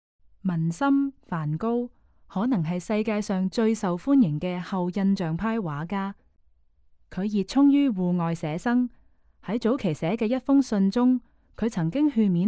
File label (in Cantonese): Canto; audio guide